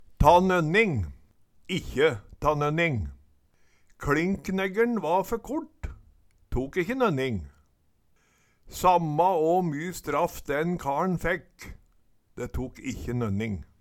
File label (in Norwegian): ta nønning, ikkje ta nønning, Numedalsmål; KLinkneggeLn va fer kort, tok ikkje nønning.